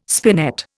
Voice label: "spinet", Indian